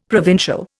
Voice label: "provincial", Indian; Dictionary